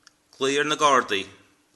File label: police, irish